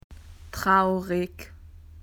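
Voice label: "sad", Australian English; PictureDictionary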